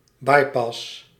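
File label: "bypass", Dutch; Сучкорез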